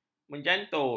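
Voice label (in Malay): "menjantur", Malay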